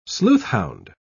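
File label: "sleuthhound", British English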